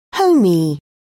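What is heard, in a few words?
"homey", British English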